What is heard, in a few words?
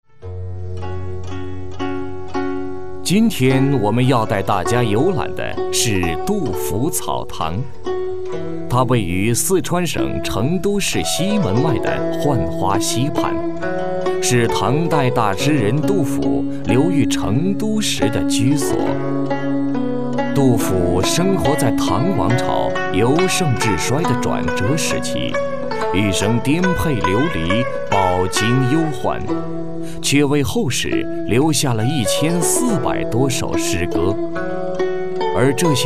boy